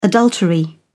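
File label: "adultery", British English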